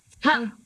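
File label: voice acting, es, female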